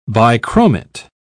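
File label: "bichromate", British English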